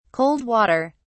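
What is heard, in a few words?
"cold water", British English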